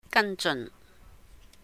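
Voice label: "gàn jeun 跟進", Cantonese; follow up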